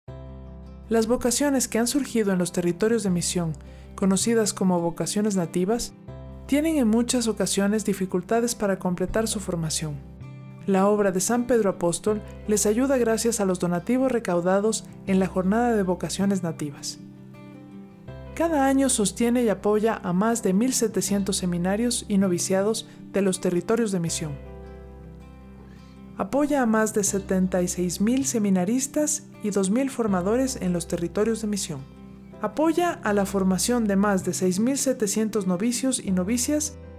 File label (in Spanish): spot F; Vocaciones Nativas